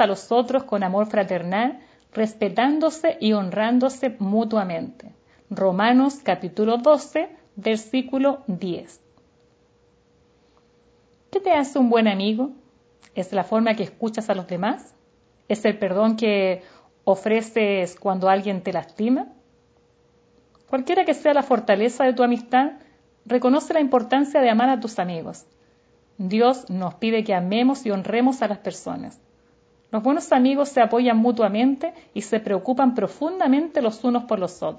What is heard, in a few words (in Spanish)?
devocional